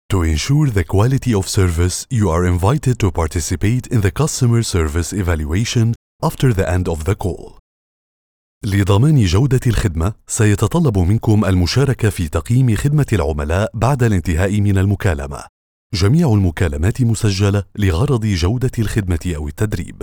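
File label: arabic interactive voice response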